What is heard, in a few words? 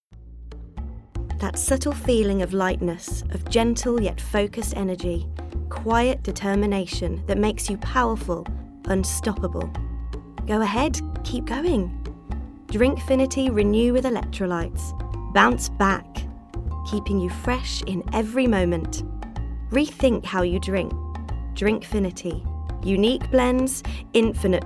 female british english drink comercial; ideasonora